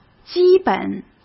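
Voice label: "基本", Mandarin Chinese; chinese flashcards; hsk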